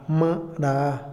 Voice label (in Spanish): más, Otomí, hombre; INALI